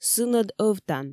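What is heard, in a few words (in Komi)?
сынӧд ӧвтан, Komi, female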